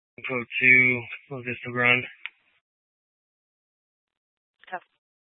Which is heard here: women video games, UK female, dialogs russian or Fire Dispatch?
Fire Dispatch